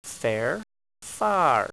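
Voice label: "fair", Elizabethan English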